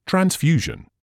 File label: "transfusion", English